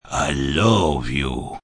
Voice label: I Love You Deep, male; Endearment; Talking Sound Effects; Sound Effects:Voice; VoiceBox

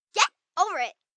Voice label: Get Over It, Attitude, 1 girl, 10 yrs; VoiceBox

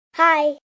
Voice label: "Hi", child, girl; Greetings And Goodbye

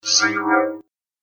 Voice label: Robot Voice Says Zero; Robot Sound Effects; Sci-Fi Robot Sfx

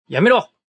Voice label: Japanese Dont Do, Male; Talking Sound Effects

